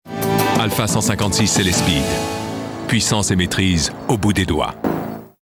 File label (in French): Voix Off Voice Over; Alfa 156